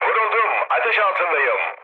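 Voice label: Turkish on fire, mood low, speech; War Thunder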